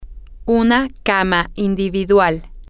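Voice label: single bed, Spanish, female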